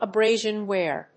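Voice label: "abrasion wear", English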